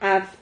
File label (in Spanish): "haf", Silabas